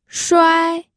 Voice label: "shuai1", pinyin